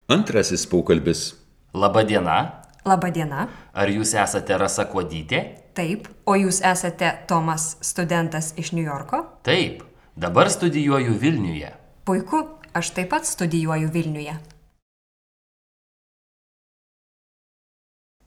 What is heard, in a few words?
Dialog